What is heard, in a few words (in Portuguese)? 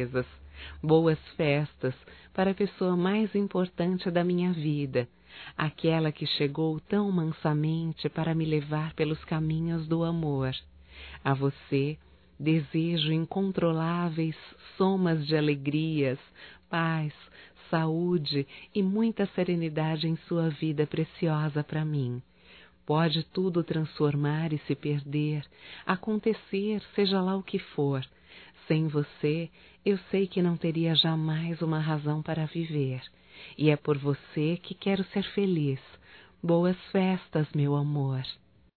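Natal Ano Novo Romantico female; Telemensagens 2017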